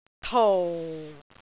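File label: "tou4", Cantonese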